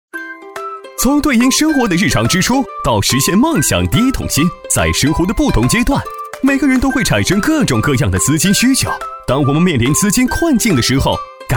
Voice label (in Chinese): 男 MG 搞怪活泼; 资金金融; 厚重有力; 轻松活泼; 飞碟说MG